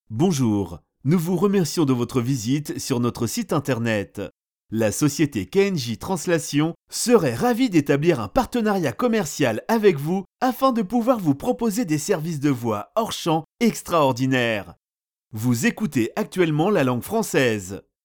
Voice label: french male